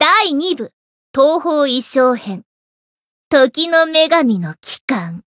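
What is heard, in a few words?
Voice title, Japanese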